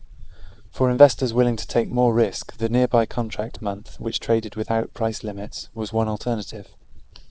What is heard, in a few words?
Reverb far small